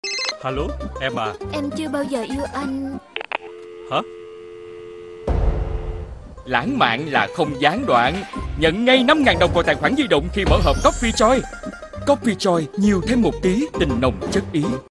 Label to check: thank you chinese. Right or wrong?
Wrong